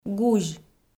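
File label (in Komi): "гузь", Komi, female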